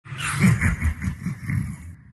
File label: laugh; dota; nevermore